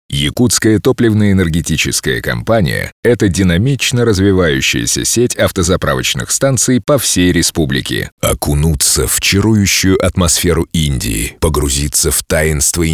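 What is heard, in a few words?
Russian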